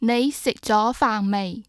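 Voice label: yue, Chinese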